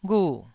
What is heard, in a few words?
"ghu4", Taiwanese syllable